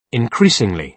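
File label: "increasingly", British English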